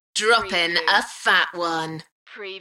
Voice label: UK female; Droppin a phat one

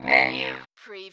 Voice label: Robot voice - menu; lynne publishing

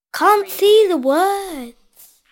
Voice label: Child says I can't see the words; beanstalk audio

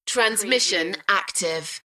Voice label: UK female, Transmission active; beanstalk audio

